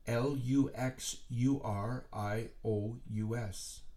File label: Luxurious, letters being spelled out